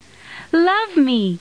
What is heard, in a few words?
Sound Love me last-female